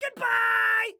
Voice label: Bye, Excited Guy

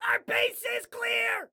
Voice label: Base Clear, Excited Guy

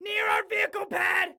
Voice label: Warn Enemy Near Our Vehicle Pad, Excited Guy

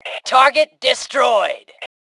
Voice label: Target Destroyed, Female; T2 Female 04

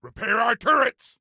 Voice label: Repair Turrets, Soldier Male; Soldier Male 03

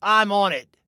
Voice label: Self Task On It, Male; Male 02

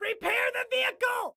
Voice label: Repair Vehicle, Excited Guy

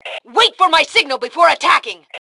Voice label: Attack Wait, Female

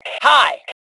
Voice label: Global Hi, Female; T2 Female 04

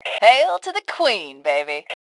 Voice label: Taunt Brag, Female; T2 Female 03

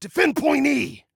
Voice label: DEFEND, Male

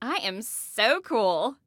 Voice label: Taunt Brag, Female; Female 02

